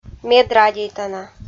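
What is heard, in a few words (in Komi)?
"медрадейтана", Komi-Zyrian, female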